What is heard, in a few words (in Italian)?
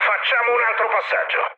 bomb failure, mood med, speech it; War Thunder